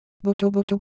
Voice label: Ewe, ideophones

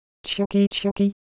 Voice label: Japanese, ideophones

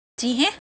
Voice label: Semai Shape

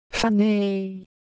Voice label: Ewe Color Visual, ideophones

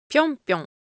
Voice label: Japanese Motion, Ideo Phones

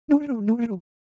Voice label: Japanese Texture, Ideo Phones

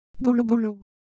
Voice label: Ewe, ideophones; Motion

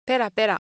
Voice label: Japanese, Ideo Phones; Shape

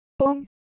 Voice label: Japanese Sound, Ideo Phones

